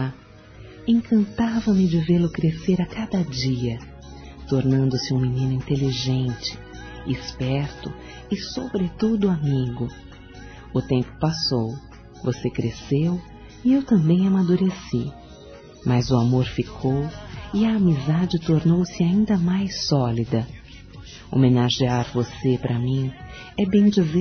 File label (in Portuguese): HOMENAGEM AO SOBRINHO F; fem